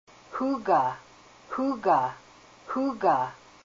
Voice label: king crab, Haida language; húugaa